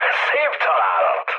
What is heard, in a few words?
bomb success, mood high, speech hun; War Thunder